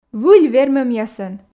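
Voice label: "выль вермӧмъясӧн", Komi, female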